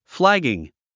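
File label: "flagging", English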